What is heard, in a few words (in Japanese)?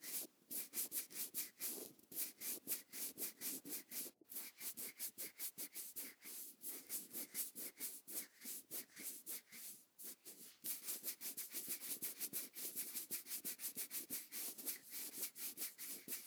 ちょっとえっちな ASMR